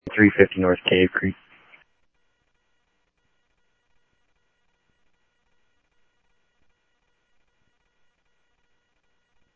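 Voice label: Phoenix police department, scanner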